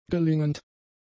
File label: "gelingend", German; adjectives